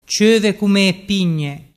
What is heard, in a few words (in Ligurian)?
"ciovecummuepigne", dialettu